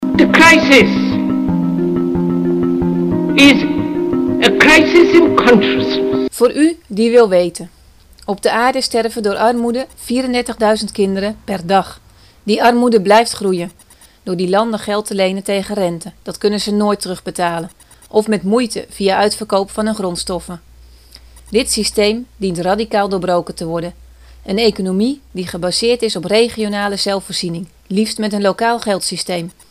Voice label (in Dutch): radiospotje; De Groenen